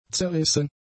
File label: "zerrissen", German; adjectives